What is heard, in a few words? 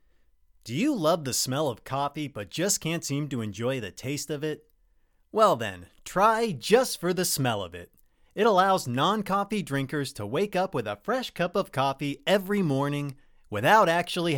Narration